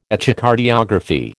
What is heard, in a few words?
"Echocardiography", American